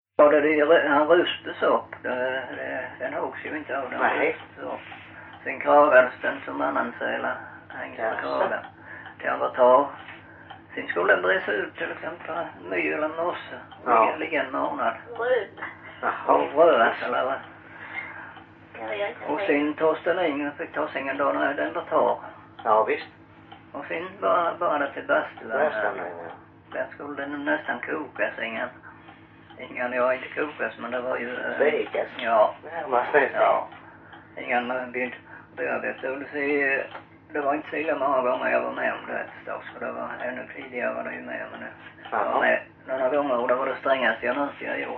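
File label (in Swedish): Loshult Skåne, dialekter; Folkminnen; Svenska sagor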